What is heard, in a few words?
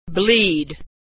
verb "bleed", pronunciation